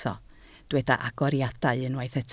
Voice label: "agoriadau", Welsh